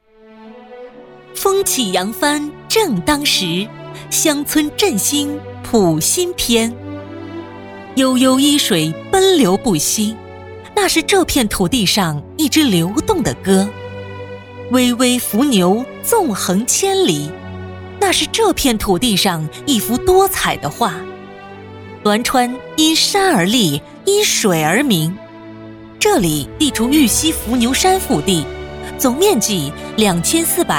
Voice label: 专题片党政积极沉稳

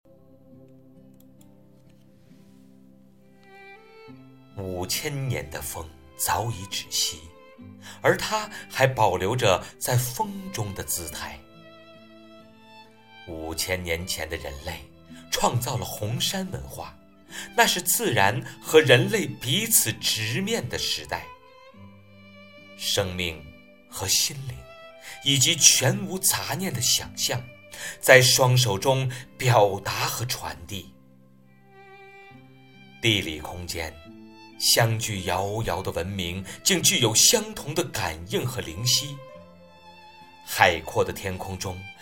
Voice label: 解说词; 红山文化

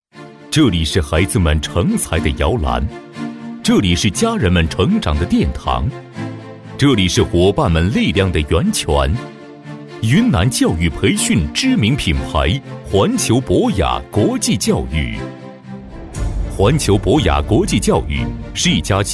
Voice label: 宣传片; 博雅教育

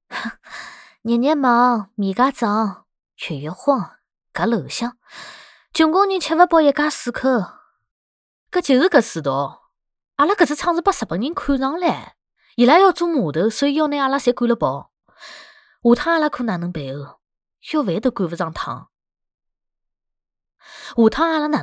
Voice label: 沪语信息流角色女

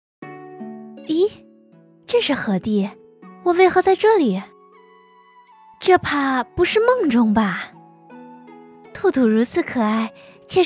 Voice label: 古风少女

